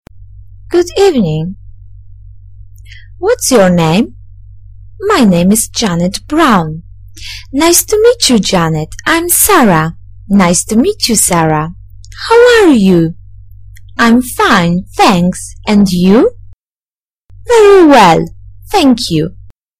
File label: dialog